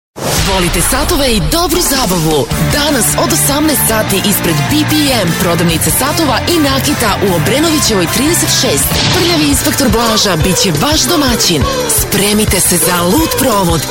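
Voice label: serbian female commercial; voice demos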